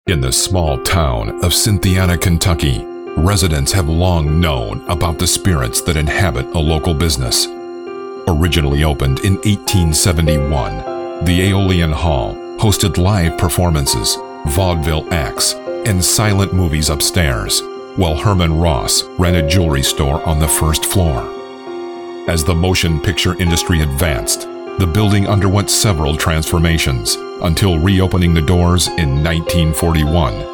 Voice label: USA